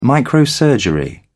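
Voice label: "microsurgery", British English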